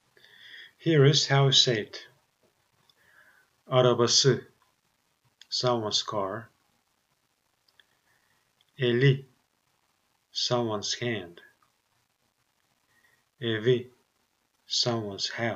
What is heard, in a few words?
vowel harmony exercise, Turkish